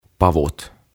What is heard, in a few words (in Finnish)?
"Pavut" "Beans"